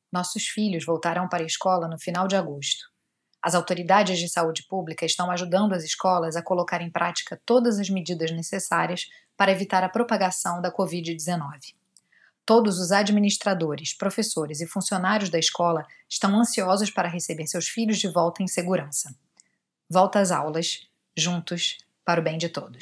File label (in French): Message PORTUGAIS